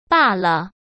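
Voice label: "罢了" Mandarin Chinese; chinese flashcards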